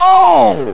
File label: Man "Ohh"